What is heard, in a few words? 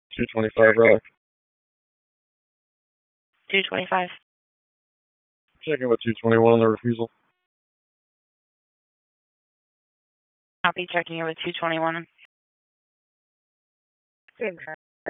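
Police Dispatch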